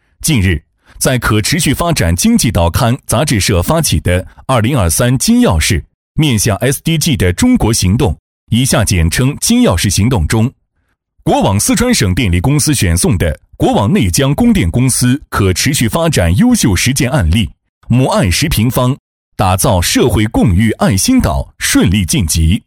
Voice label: 男 新闻播报 大气正式; 金钥匙; 激情有力

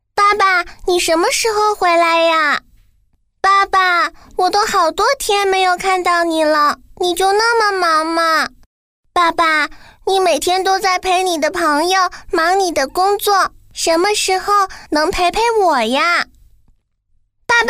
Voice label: 女 儿童配音【女童5岁左右】; 可爱

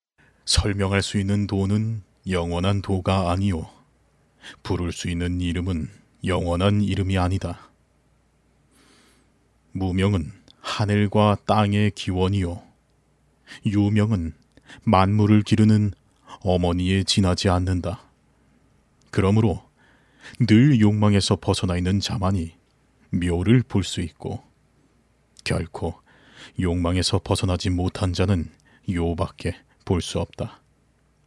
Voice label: 韩语男声

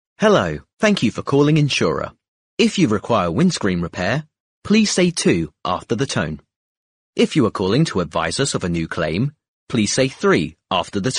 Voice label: 英式男声

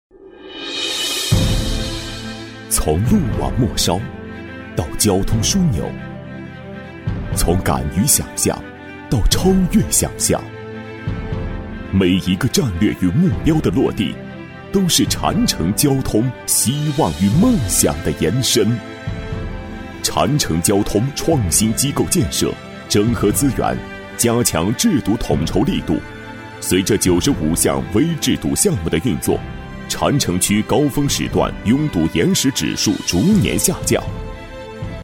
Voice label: 男 交通宣传片 磁性厚重; 男318-大气主流 大气浑厚; 禅城交通

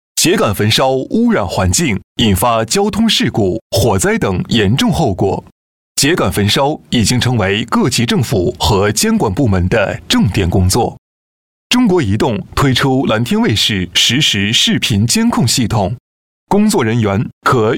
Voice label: 男, 温馨提示, 秸秆燃烧; 年轻活力 质感磁性

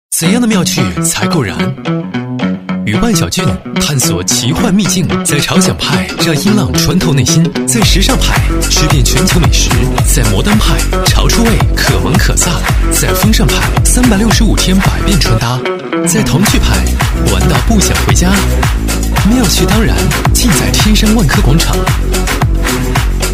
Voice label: 男 快闪 有态度调性, 时尚质感 年轻时尚; 天山万科广场

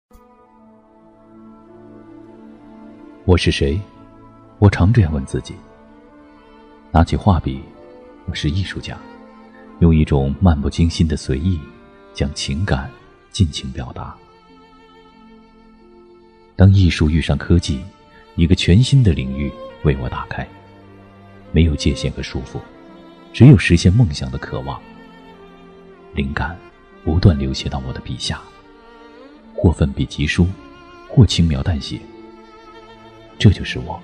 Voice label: 男, 纪录片白话型, 叙述自白; 稳重底蕴 质感磁性

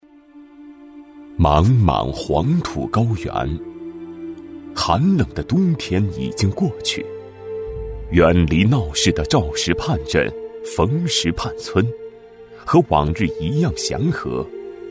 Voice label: 男-人物解说-深沉讲解, 大气主流 大气浑厚; 《人物一生经历》

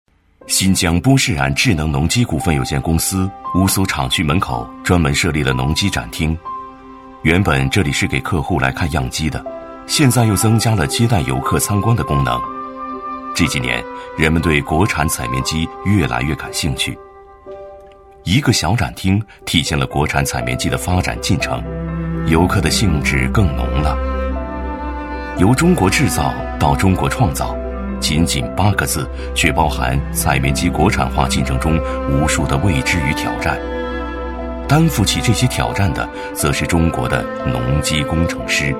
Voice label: 男 纪录片 叙述讲述; 创造中国红; 高端大气 纪实记录

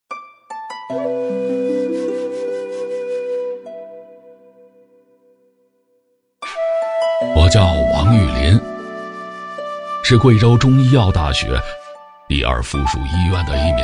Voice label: 男 角色 88岁老人入党誓词; 大气浑厚

